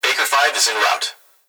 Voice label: Emergency Human Young Male Voice Police Radio Baker 5 Is In Route; emergency effects